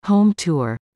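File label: "home tour", English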